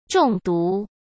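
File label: "中毒", Mandarin Chinese; chinese-flashcards; hsk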